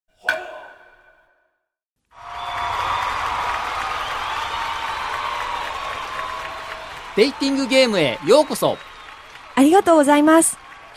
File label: dialog; JapanesePod101; Beginner Season 1